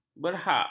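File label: "berhak", Malay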